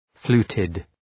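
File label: "fluted", English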